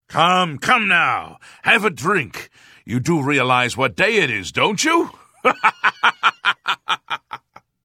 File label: Holiday Greeting Imperial Male; Unused